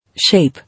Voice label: "Shape", English